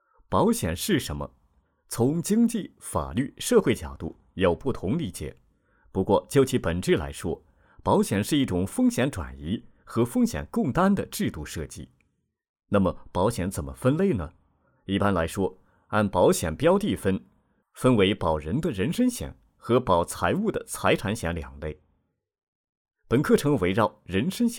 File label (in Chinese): 男, 亲切自然风格; 保险是什么